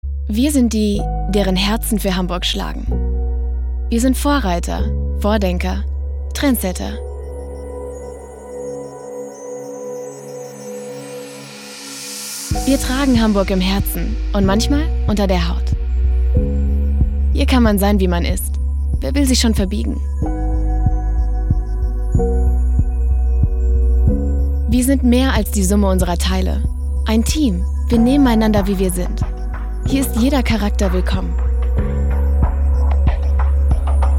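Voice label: Imagefilm, Commercial (Werbung), Presentation, German; Empire Riverside Hotel Hamburg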